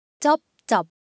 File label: Korean Sound, ideophones